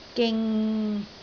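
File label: "ging6", Cantonese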